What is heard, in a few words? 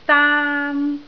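"daan1", Cantonese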